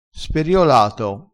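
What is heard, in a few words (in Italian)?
"speriolato", dialetto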